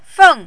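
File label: "feng", Mandarin, tone 4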